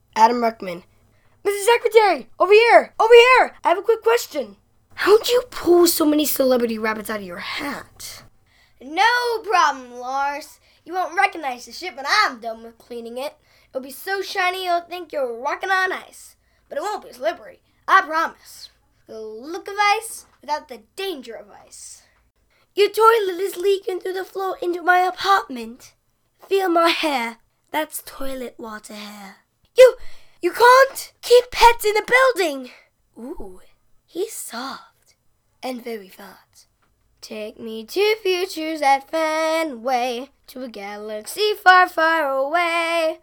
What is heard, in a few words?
youth commercials